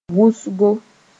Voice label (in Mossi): "wʋsgo", moore; much